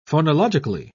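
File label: "phonological", British English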